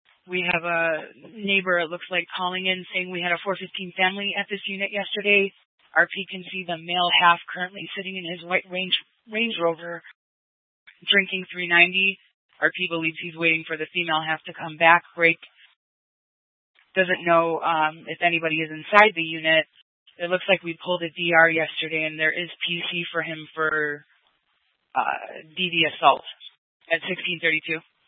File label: Scottsdale Police, Simulcast